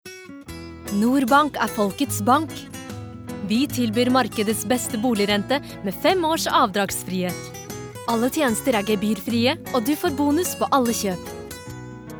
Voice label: no commercial, norsk kvinne

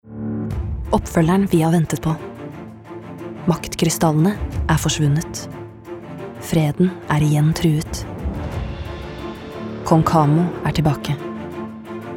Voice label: no movietrailer

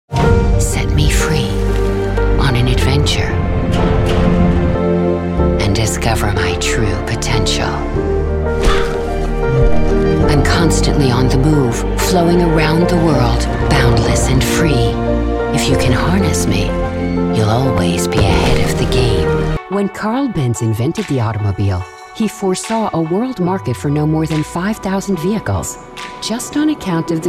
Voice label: us corporate not your typical

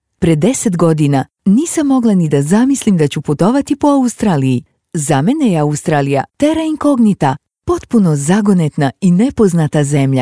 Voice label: rs corporate

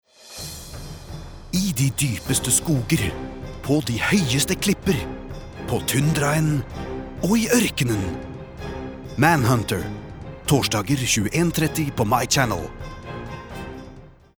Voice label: no dramatic

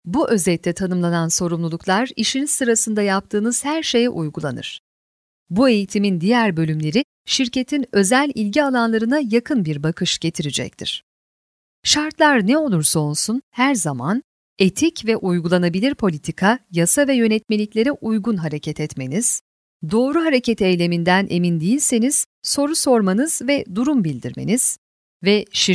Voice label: tr informative; tyrkisk; kvinne

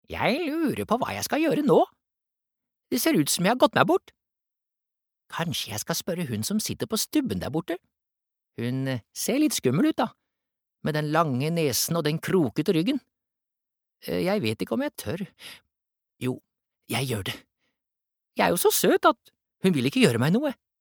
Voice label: no dub character

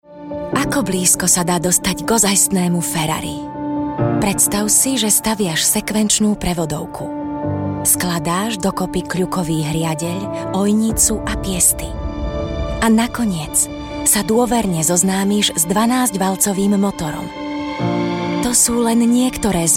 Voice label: sk, female, slovak

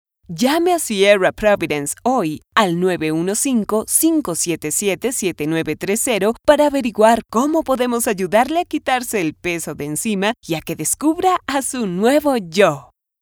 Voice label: spanish latin colombian commercial

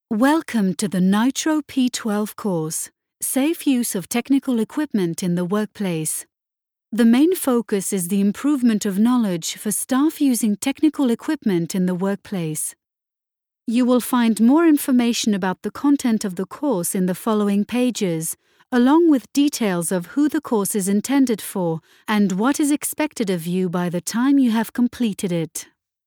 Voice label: no elearning english

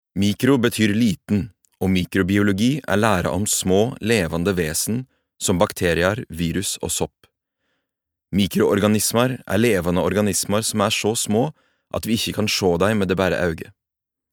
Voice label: no nynorsk, norsk mann

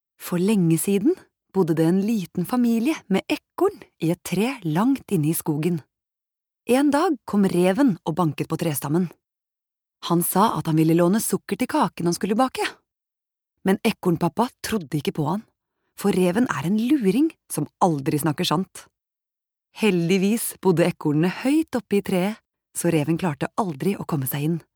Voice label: no childrens book

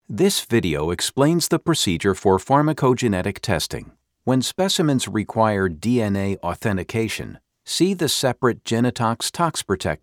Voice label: us elearning

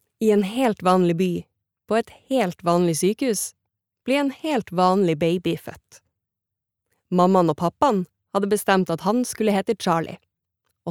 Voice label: Norwegian fortellende, female

